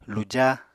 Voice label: "luja", Apatani